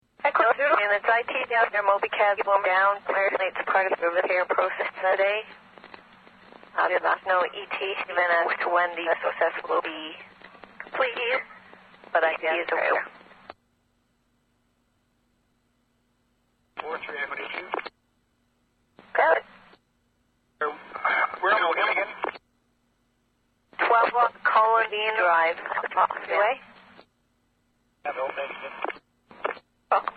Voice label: Radio Calls Police, Radio Dispatch; Waterloo Ontario; Voice; Radio Dispatches; Big Room Sound

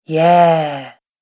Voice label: "Yeah", Naughty Female Voice; Recorded Voice

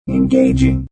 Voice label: Robot Voice "Engaging"; Lynne Music

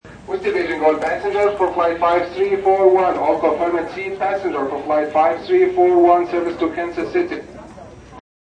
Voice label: Airprt Flight Annc, Airport announcement.; Airport Sound Effects; Airports (Sound Effects:Backgrounds)

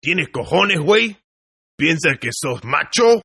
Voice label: Toughguy Badass Spanish, Tough Hispanic Male; Tough Hispanic Male Saying "Oh You Think You Are A Badass?!" In Spanish-2 Takes; Attitude Sound Effects

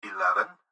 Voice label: Gps Voice, Male; Eleven; Speaker Adult Male; Recorded Voice; Blastwave

